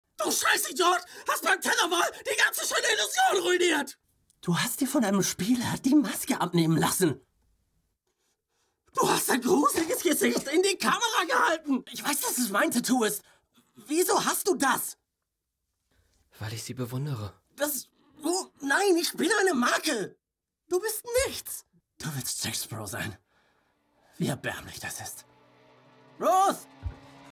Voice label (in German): Synchron Crazy; Octo Games